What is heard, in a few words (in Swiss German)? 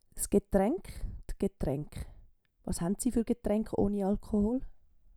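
"s Getraenk", Swiss German; Wortschatz Kapitel 8; Kapitel 8 Zusatzwortschatz 2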